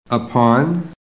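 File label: "upon", American English; PHONETIC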